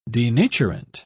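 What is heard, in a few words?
"denaturant", British English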